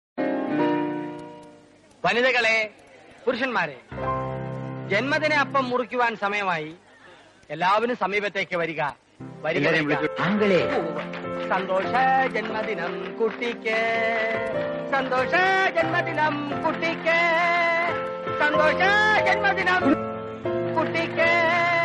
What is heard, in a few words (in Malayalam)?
santhosha janmadinam kuttikku malayalam notification